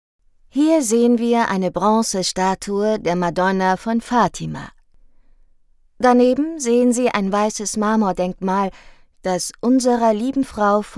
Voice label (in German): Audioguide; Vatikan